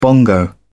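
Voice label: "bongo", British English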